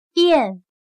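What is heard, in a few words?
"遍", Chinese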